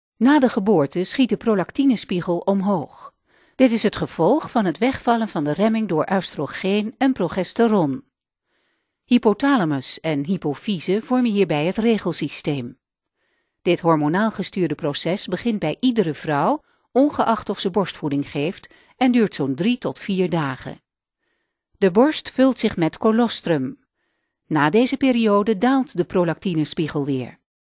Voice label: nl e-learning, frauen

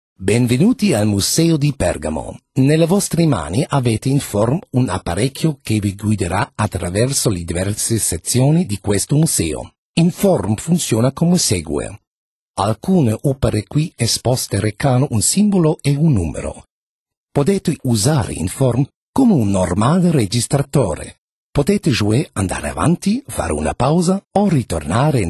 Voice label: ital

